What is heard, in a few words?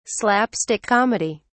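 "slapstick comedy", British English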